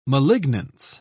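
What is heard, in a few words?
"malignance", English, uk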